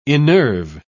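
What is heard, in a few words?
"innerve", British English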